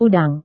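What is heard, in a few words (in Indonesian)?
"udang", Indonesian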